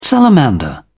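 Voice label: "salamander", British English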